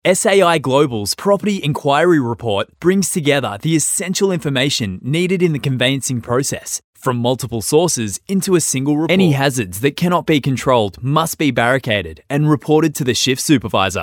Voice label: Corporate